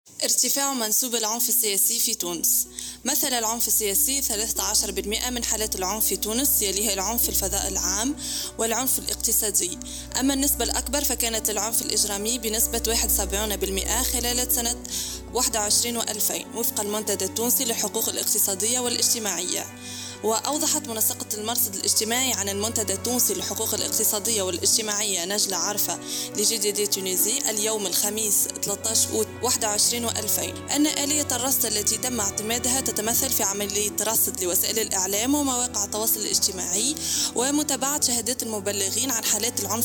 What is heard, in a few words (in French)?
voix off